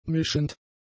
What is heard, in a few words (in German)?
"mischend", German; adjectives